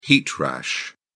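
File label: "heat rash", British English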